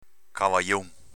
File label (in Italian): "cavajon", dialetto veneto